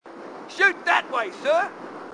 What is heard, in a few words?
shoot that way sir, marine aussie; h2dialogue